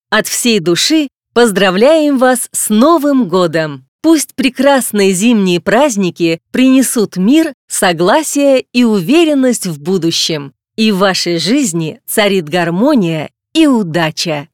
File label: female gift